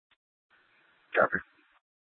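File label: police department dispatch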